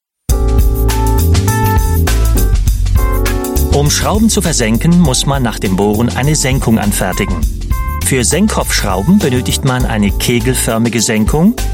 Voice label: German eLearning